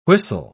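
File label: "whistle", English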